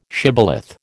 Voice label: "shibboleth", American